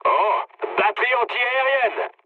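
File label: French damaged aa mood low; War Thunder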